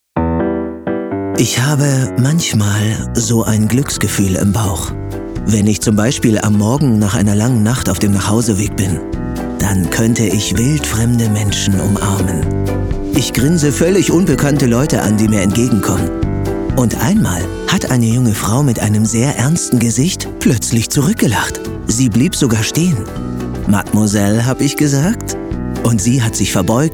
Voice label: Casting, Narrative, Off, Station Voice, German, Schwäbisch; Mittel minus (25-45)